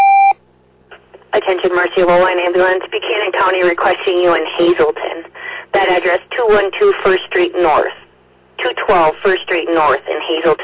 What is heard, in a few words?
Oelwein Ambulance, dispatch